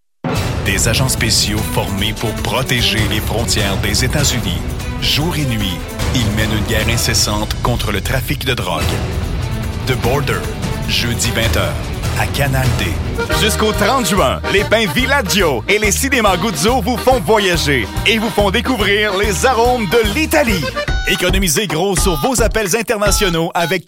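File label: M, French Canadian, male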